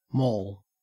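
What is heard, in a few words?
"mall", Australian English; pronunciations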